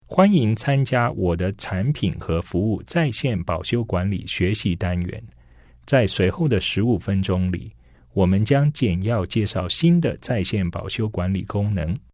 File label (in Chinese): CHINESE